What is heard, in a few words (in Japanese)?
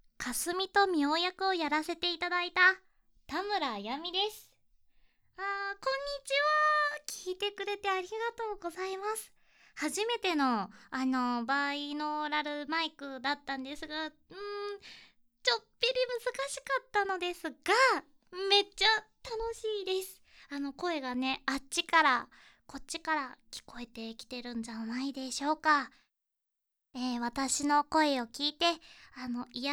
アフタートーク, ASMR